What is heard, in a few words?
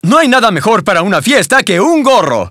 Spanish - dialogue, reveal